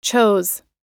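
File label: "chose", US English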